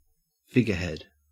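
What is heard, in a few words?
"figurehead", Australian English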